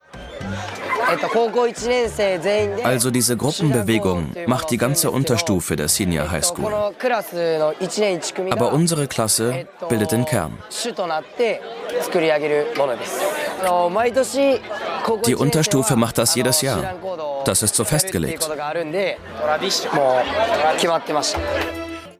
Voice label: Voice Over; Galileo; Synchrongehen